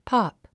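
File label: "pop", English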